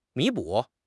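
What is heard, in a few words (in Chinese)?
"弥补", Chinese; HSK6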